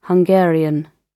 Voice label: hungarian br